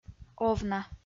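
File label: "овна" Komi-Zyrian, female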